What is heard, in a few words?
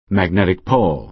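"magnetic pole", American English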